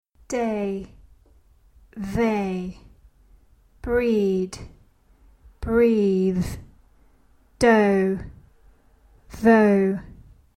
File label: minimal pairs, British accent; Pronouncing ‘TH’ in English